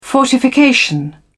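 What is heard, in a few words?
"fortification", English, British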